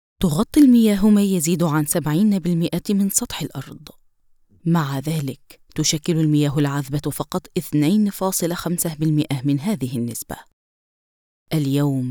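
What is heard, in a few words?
Arabic, female, documentary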